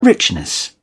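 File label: "richness", British English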